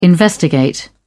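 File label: "investigate", British English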